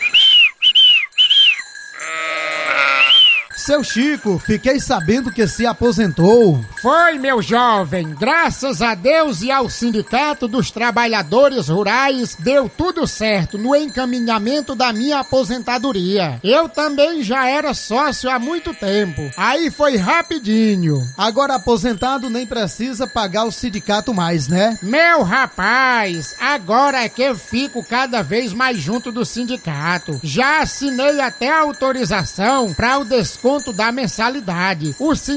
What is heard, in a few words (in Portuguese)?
Spot Dialogo; sustentar